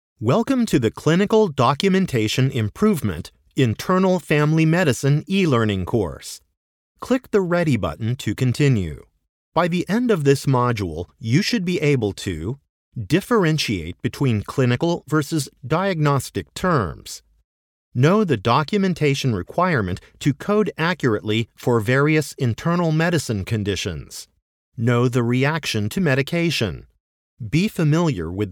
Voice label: serious